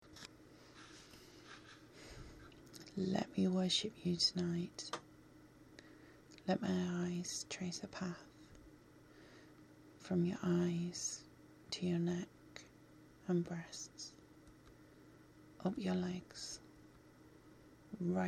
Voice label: Erotic poetry, Romantic; Let me worship you tonight; Sensual; Whispers